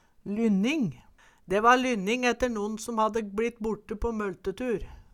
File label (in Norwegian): lynning, Numedalsmål